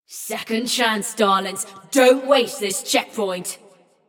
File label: Checkpoint Opened, Announcer; Flux; Scraprun